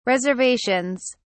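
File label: "reservations", British English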